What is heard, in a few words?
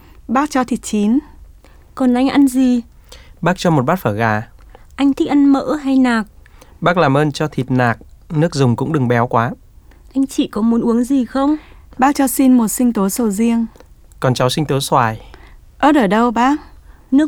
Dialog